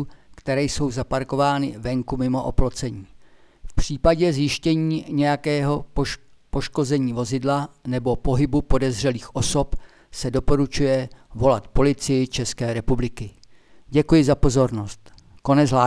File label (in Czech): rozhlas upozorneni policie